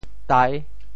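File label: "tai6", Teochew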